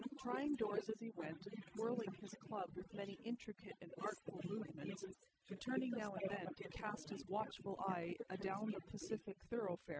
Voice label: female; SASSEC07

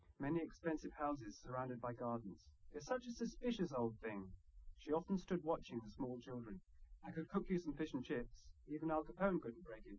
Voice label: male liverec

